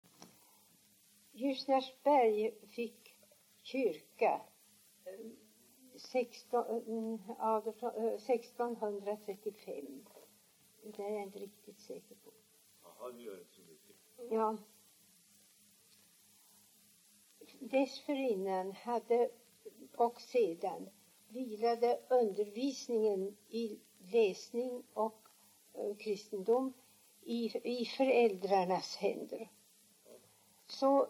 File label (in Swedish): dialekter; Folkminnen